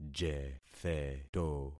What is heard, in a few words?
"jetheto", concat syllables; Affixing experiment